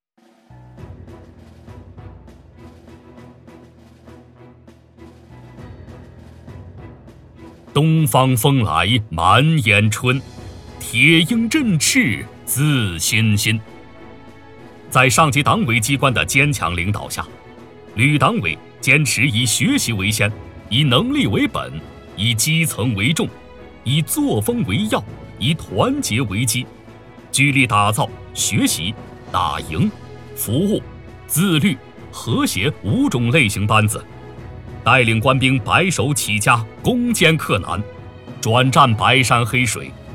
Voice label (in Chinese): 男 专题 激情振奋 权威; 军民党政机关